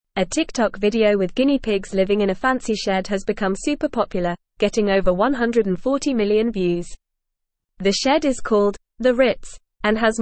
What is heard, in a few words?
English FAST Reading; English Newsroom; Guinea pigs luxury shed goes viral on TikTok